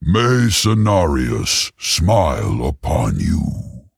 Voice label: Male Tauren Play; Duels

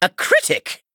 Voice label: Male Night Elf Attack

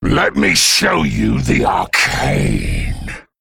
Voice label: Male Worgen Play

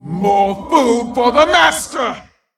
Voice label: Female Tolvir Play

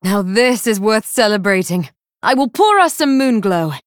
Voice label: Female Night Elf First Place

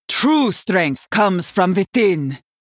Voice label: Female Draenei Play

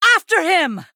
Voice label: Female Night Elf Play

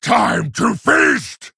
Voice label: Male Dire Fel Orc Attack

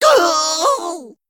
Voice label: Male Dredger Death

